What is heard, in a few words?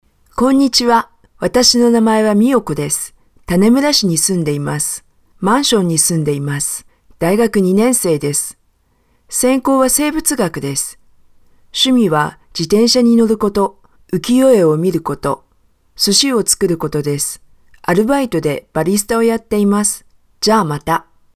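female Japanese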